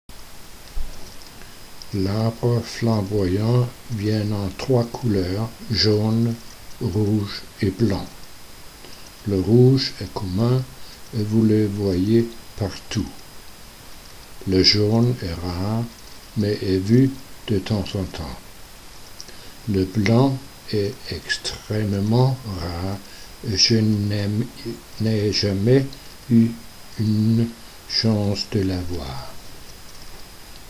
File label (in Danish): Flamboyante fransk; Guadeloupe; Basse Terre